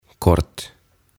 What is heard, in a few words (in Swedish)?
"Kort" "Lyhyt"